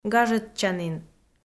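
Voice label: "гажӧдчанін", Komi, female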